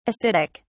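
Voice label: "ascitic", British English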